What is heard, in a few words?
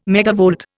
"megavolt", Indian